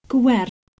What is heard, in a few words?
"gwher" PIE